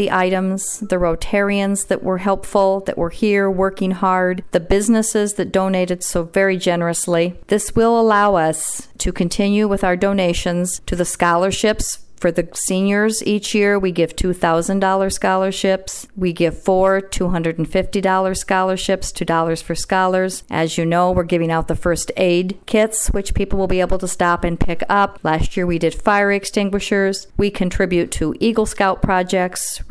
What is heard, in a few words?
Radio Auction; Rotary